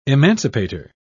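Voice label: "emancipator", British English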